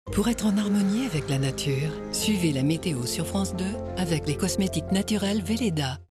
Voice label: film tv billboard; weleda

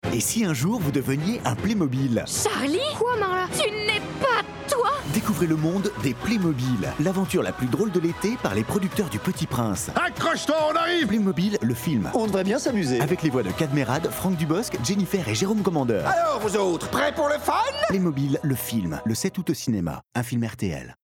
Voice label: Radio ton souriant et dynamique Voix

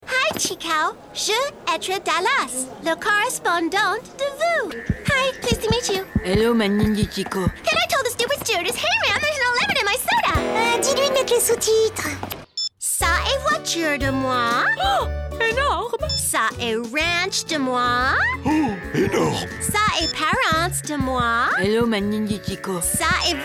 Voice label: cartoon; a la Dallas

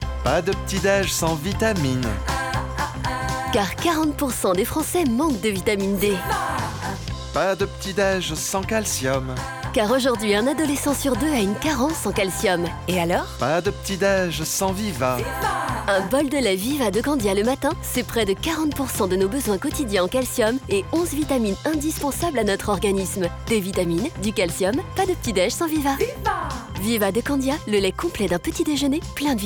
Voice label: dynamique; VIVA

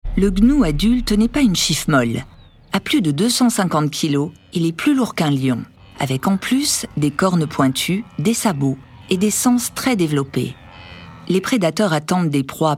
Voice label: doc narration chaleureuse posée; NAITRE ET SURVIVRE DANS LE SERENGETI

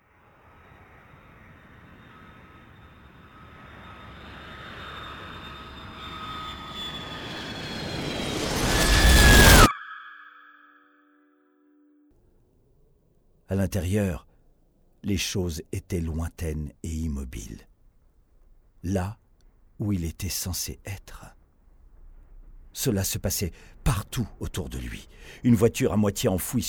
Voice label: Livre Sonore; L'homme qui tombe; Don DeLillo

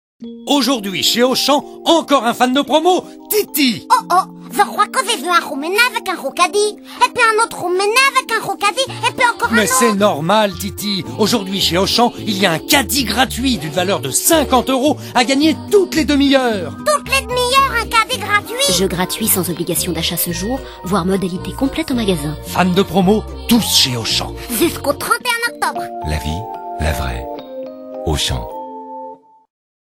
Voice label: voix Off; Auchan; Titi

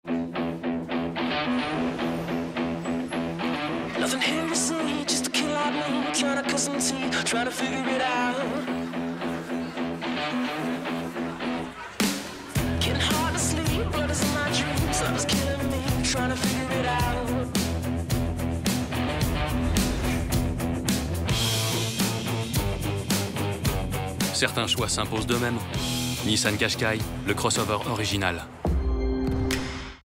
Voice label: spot tv; nissan qashqai; le crossover original

